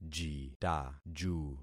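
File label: "jitaju", concatenated syllables; Affixing experiment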